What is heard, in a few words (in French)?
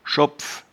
"schopf", patois jura